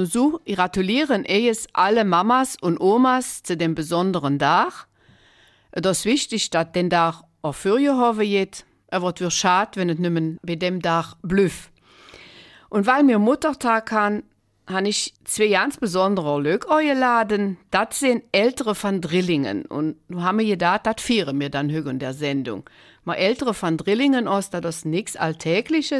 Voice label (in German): Eifeler Mundart; Muttertag